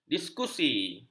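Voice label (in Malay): "diskusi", Malay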